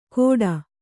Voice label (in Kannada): "ಘೋಟಾ", Kannada